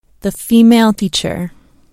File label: female teacher man